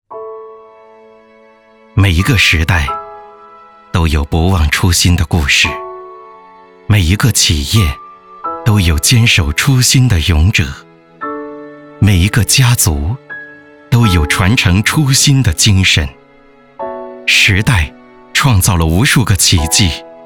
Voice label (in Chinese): 企业年会 大气温情; 初心